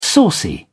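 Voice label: "saucy", English, British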